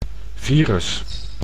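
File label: "virus", Dutch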